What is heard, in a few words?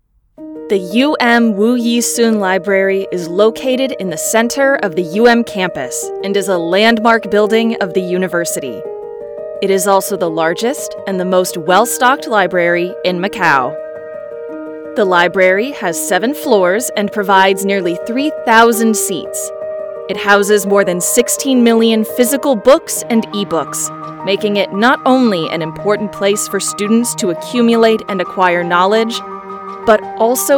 English